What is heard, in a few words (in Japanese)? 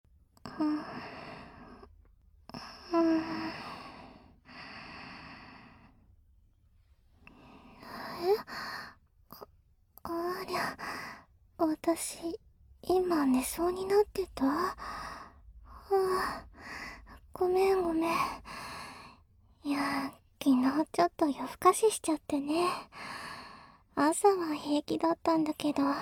あまあまお姉さんとのえっちな添い寝; SEなし